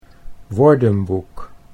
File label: "woordenboek", Dutch